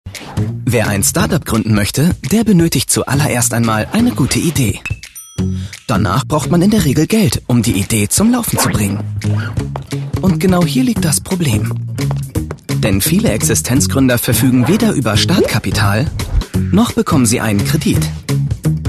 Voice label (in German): Sprachprobe Erklaerfilm; L-Bank